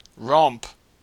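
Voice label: "romp", Australian English; pronunciations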